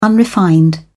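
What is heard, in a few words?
"unrefined", British English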